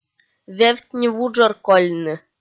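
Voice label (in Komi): вевт ни вуджӧр кольны, female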